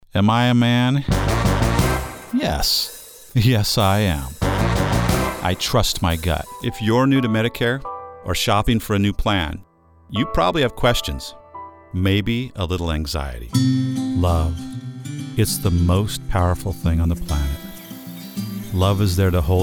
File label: COMMERCIAL